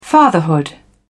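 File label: "fatherhood", British English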